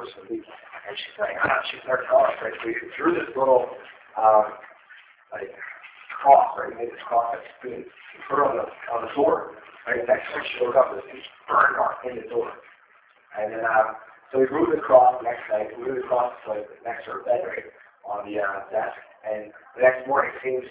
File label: room voice sound; 3rd floor